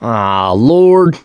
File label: reaction negative, survivor voice mechanic